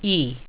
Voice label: "e", english